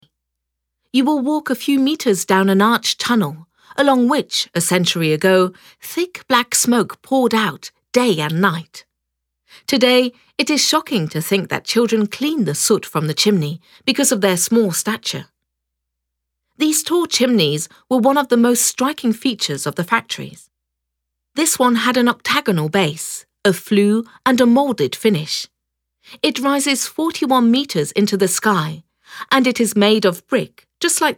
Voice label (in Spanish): audioguia; fabrica textil engl